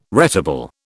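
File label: "retable", British English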